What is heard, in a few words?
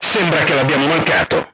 armor not pierce, crew tanks italian; War Thunder